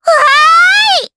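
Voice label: Vox Happy Japanese; kingsraid; voices heroes jp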